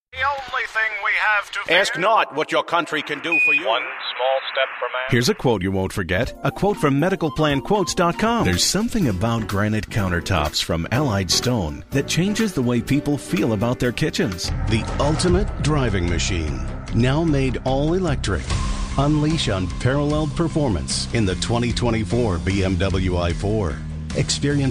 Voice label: Commercial